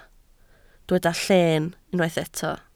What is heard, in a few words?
"lleen", Welsh